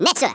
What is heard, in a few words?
"YES SIR", Africaan, Speech; Worms Armageddon